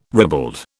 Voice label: "ribald", British